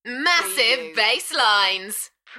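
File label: UK female, Enthusiastic, DJ vocals; Massive basslines; beanstalk audio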